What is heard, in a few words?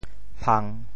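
"phang3", Teochew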